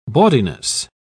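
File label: "bawdiness", English, British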